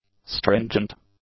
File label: "stringent", English; adjectives